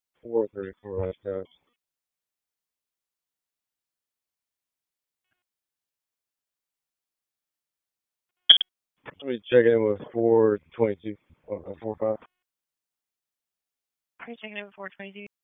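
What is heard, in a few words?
Police Dispatch, p25; Raleigh Police Southeast District Disp; wake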